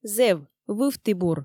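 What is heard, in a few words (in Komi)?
"зэв, вывті бур", Komi, female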